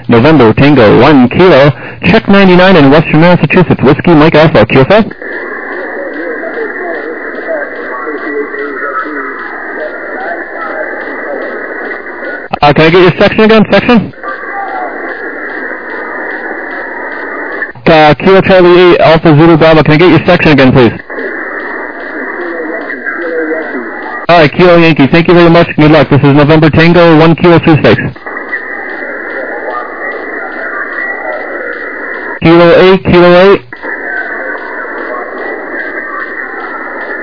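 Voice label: upper sideband 21MHz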